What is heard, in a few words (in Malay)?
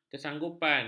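"kesanggupan", Malay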